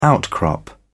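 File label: "outcrop", British English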